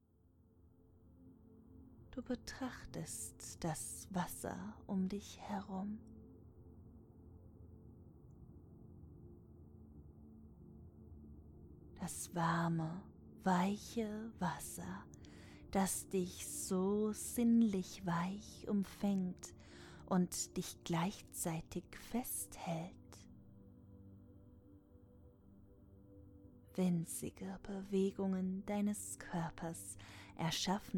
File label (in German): Erotischa Hypnose; Tiefenrausch